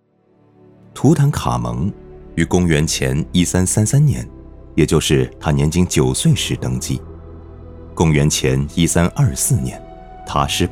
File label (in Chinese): 解说; 消失的建筑